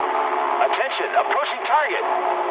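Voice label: English near waypoint mood medium; War Thunder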